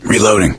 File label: reloading quiet; voice; source engine; left 4 dead 2; survivor; gambler